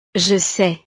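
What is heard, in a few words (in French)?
"je sais", French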